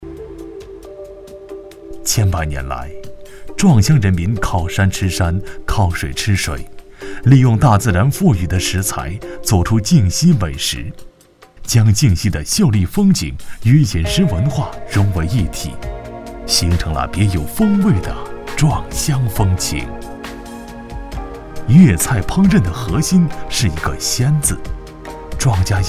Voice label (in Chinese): 男国 宣传片 企业 轻松; 好食客